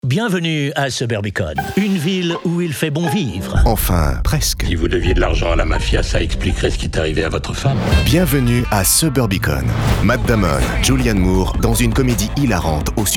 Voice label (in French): spot